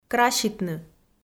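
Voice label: "краситны", Komi, female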